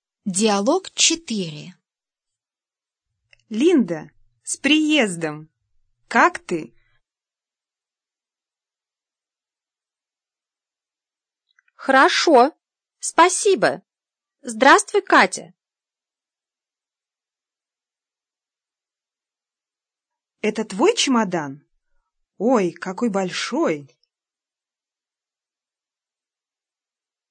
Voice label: dialog, russian